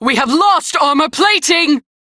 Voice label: Crew Ship Low, Female; Dialog; en